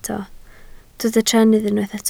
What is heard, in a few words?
"trennydd", Welsh